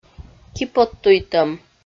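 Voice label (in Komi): "киподтуйтӧм", Komi, female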